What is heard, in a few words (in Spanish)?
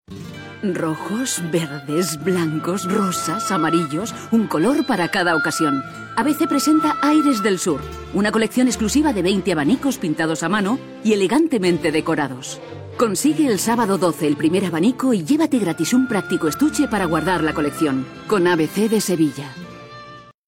cuña